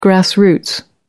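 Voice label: "grass roots", British English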